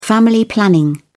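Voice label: "family planning", English, British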